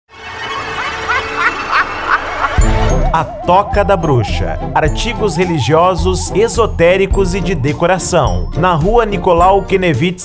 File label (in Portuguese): Locutor; Toca Da Bruxa Santo Antonio Da Patrulha RS